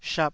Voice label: "shap2", taiwanese Syllables